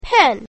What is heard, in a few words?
"PEN", english; grade1; basic sounds 1